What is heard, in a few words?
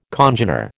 "congener", American